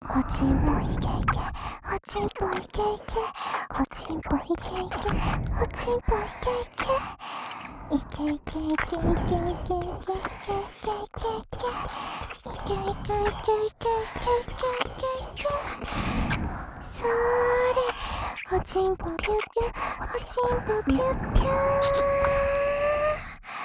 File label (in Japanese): 射精しちゃえ♪＋両耳キス; おまけループ（オナサポ）; パパのざぁこ♪ざぁこ♪